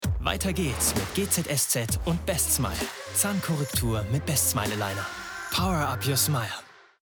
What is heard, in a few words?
COMMERCIAL; Bestsmile; Super Yann; GZSZ; Patronat